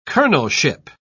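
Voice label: "colonelship", British English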